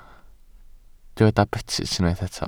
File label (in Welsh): "betys", Welsh